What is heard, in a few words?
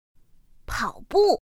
"pǎobù", pinyin; pinyin2; pinyinji